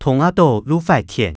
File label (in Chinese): 動啊著就發譴, 客語